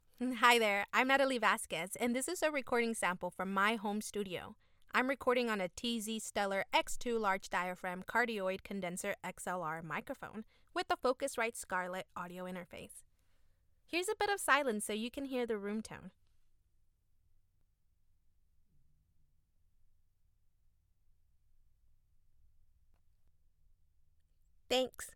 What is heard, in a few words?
Home Studio Sample; Voiceover Actor